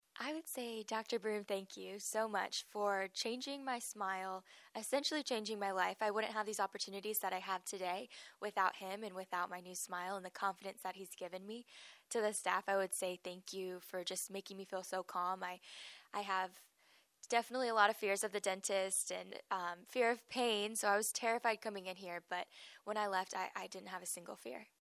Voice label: heartfelt message